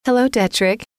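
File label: "hello", female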